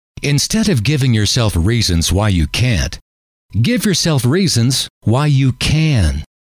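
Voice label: instead of giving yourself, male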